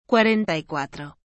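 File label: "cuarenta y cuatro", Spanish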